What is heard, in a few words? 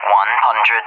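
"100", English, USA; Numbers; DCS; Allied Flight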